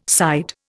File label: "side", Indian